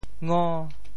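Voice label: "ngo5", Teochew; 潮州; 低声地吟唱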